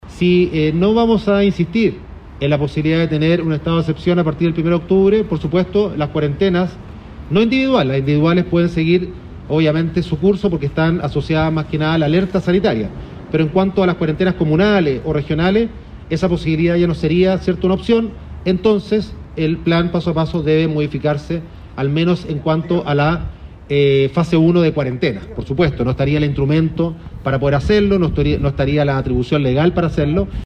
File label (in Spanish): cuña